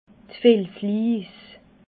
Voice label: "filzliis", alsace

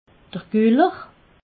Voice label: "guller", alsace

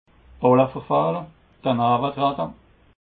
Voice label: "balleverfehle", alsace

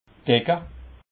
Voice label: "decke", alsace

